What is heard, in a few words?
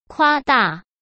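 "夸大", Mandarin Chinese; hsk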